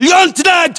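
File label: rank lieutenant, battlechatter, Russian; localized english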